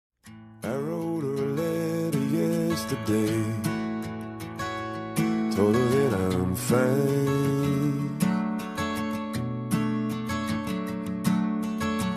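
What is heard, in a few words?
male; genro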